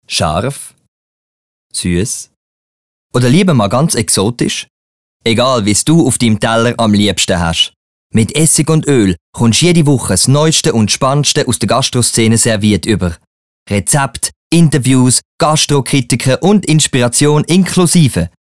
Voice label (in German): Spot sympathisch